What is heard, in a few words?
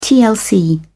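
"tlc", British English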